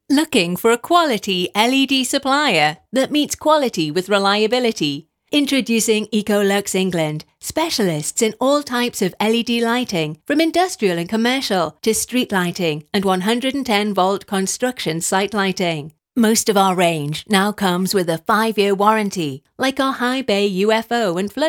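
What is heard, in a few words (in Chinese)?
外籍女英_外语_英式英语_自然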